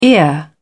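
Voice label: "ear", British English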